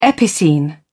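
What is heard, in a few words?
"epicene", British English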